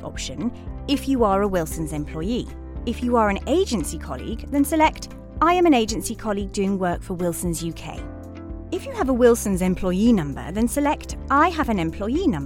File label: english british e-learning; voice actors